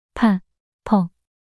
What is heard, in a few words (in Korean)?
"파퍼" 낱소리 여자